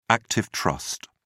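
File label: "active trust", English, British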